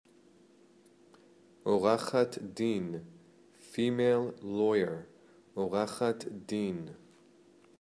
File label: Female Lawyer